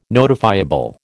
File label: "notifiable", American